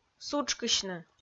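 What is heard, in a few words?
"сутшкысьны", Komi-Zyrian, female; audio dict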